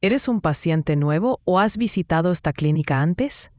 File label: question spanish